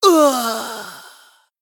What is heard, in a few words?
Male Troll Death